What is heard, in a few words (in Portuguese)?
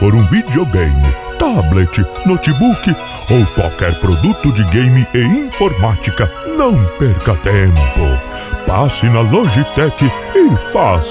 Spot Comercial de Natal com a voz do bom velhinho Papai Noel